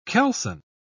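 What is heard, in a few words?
"keelson", British English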